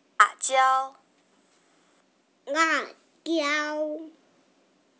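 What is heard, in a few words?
"chili", Mandarin Chinese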